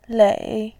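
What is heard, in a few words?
"lei3", Mandarin Chinese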